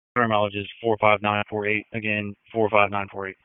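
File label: Police Tactical 1, p25; Wake Forest Police Tac 1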